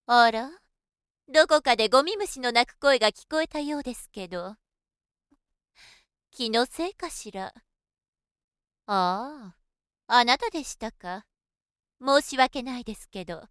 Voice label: female